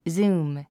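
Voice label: "zoom", 英単語 発音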